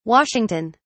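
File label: "Washington", British English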